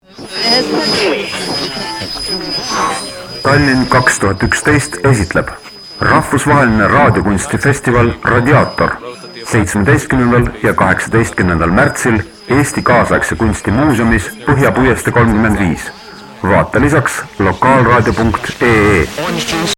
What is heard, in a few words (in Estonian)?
radioaatorreklaam